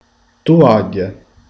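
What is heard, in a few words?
"tovaglia", Italian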